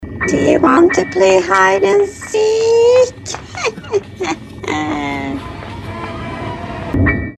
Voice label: golos grenny ty hochesh poigrat v pryatki

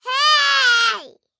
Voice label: zvuk hey detskiy

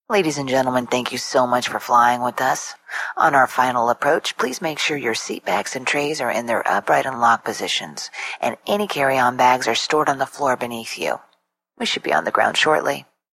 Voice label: zvuk obyavleniya stuardessy na angl bez shumov

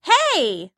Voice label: zvuk hey jenskii

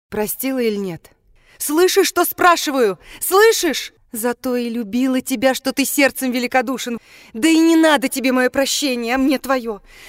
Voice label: prostil ili nete jensk golos

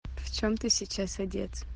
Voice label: jenskie golosovye sms

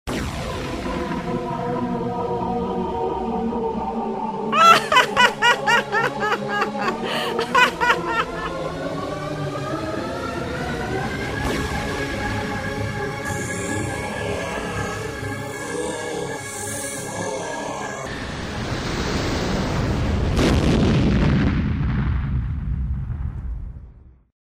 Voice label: pugaushiy zvuk baby yagi

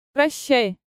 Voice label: proshai jensk; zvuki jenskih golosov

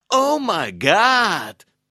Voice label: omg mujskoy

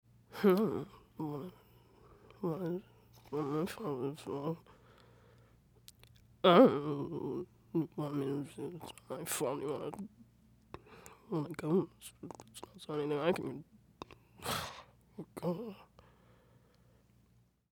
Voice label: jenshina bormochet

